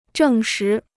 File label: "证实", Chinese